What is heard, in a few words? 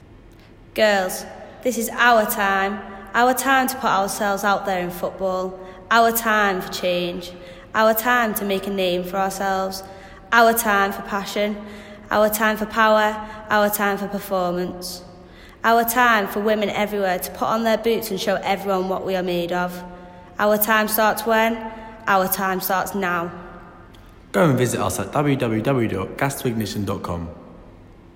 radio advert